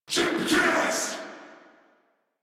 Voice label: Attack Sniper, en; Warframe; Corpus Amalgam Sniper

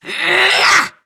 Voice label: Attack Effort, Battle; Warframe; Grineer Catbrow Handler; Battle Barks

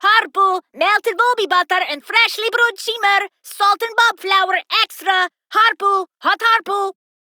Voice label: Ost Fem en; Cetus

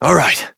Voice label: Horse Start Adult, Dialog; Duviri

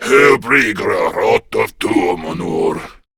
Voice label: Patrol, Male

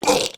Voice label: Pain Grineer Ghoul Sarge, en; Grineer Ghoul Drill Sargeant; Battle Barks

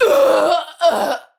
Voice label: Death Ranged, Battle Barks; Tau; Tau Dax

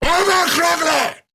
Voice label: Player Spotted Grineer Ghoul Sargeant, en; Grineer Ghoul Drill Sargeant; Battle Barks

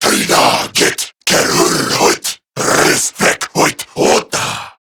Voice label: Attack, Male

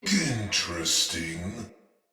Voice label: Damage Low Chance, Barks Battle Soldier; Sinecure Soldier

